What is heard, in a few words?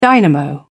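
"dynamo", British English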